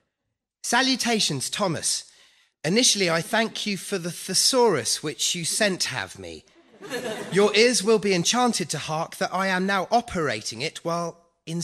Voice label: French Letter Comedy

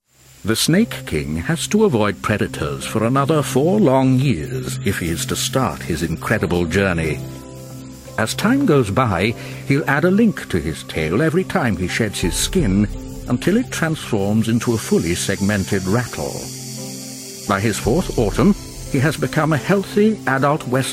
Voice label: Narration Neutral Accent

